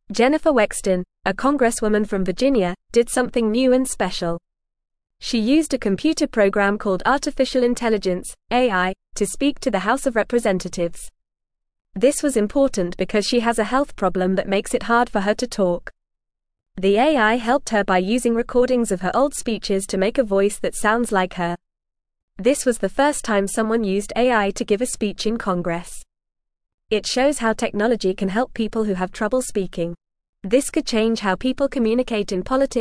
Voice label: English Lower Intermediate FAST Reading; Jennifer Uses AI to Talk in Congress; English Newsroom